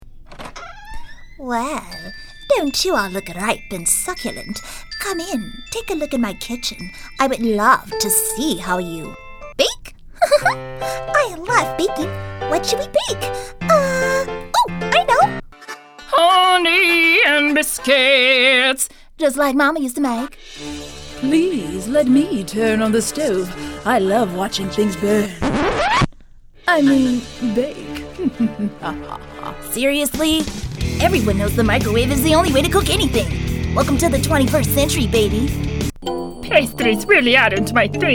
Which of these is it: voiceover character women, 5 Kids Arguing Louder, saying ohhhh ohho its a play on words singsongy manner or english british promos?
voiceover character women